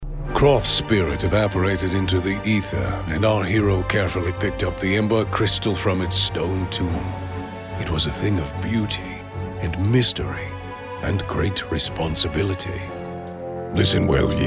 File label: Animation Video Game Characters